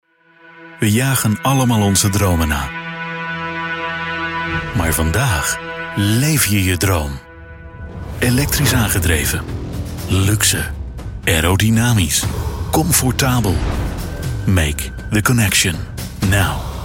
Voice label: Dutch male, corporate

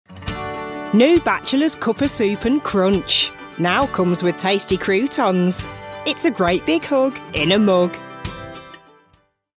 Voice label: ad brummie, Black British Female

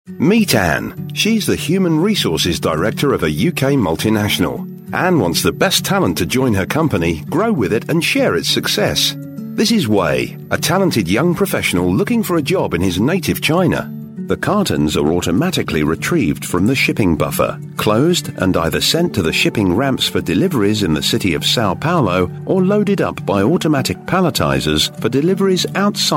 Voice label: corporate narration e-learning

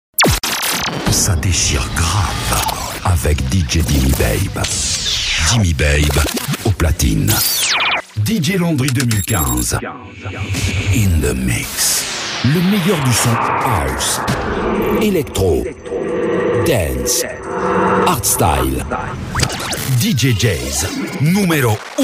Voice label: male French drops DJs promo

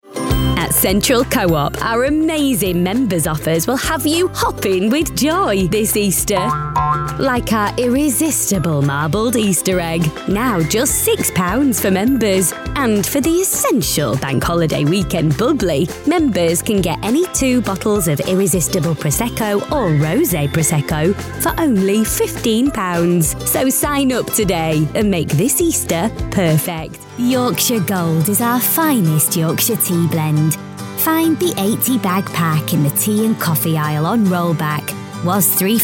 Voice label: instore

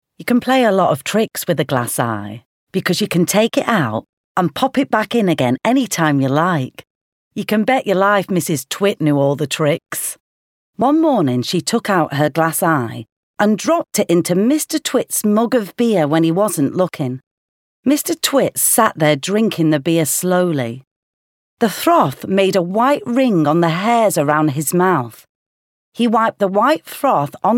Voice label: audiobook

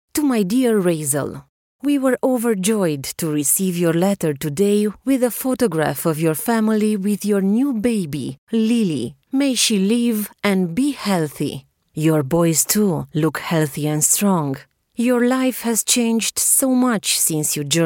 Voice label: romanian narration

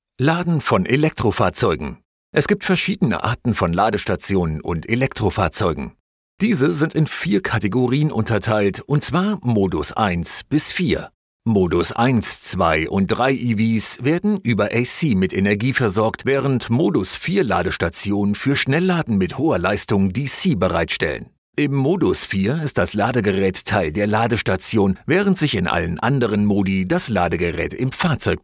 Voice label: german voice explainer; electric charger; megger

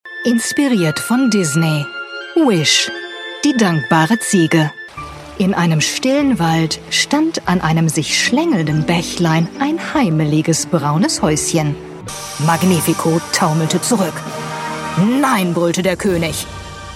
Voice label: German female, character voices

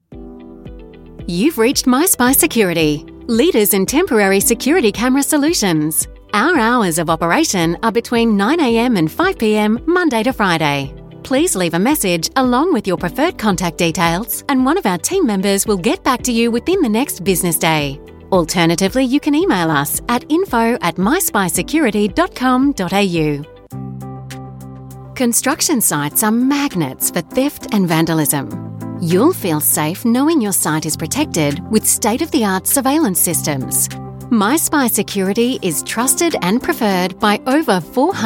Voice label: australian voice telephone

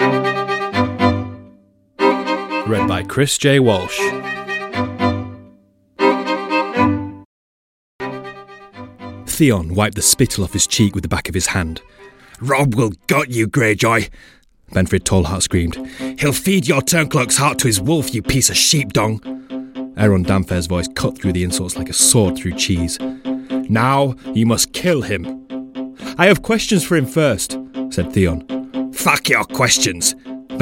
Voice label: audiobook

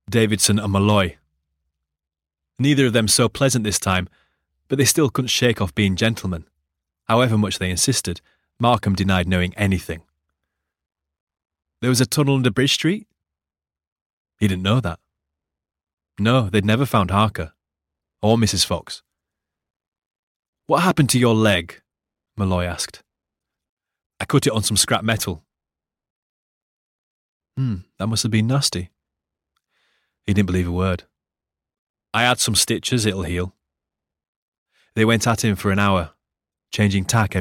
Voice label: audiobook sample

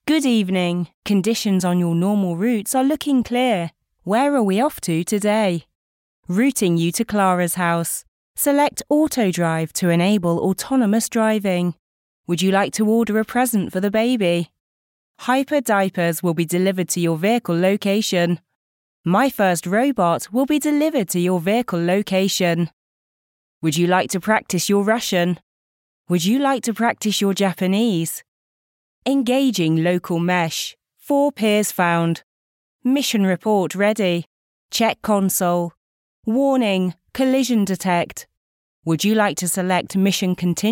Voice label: system announcements

